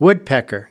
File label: "woodpecker", us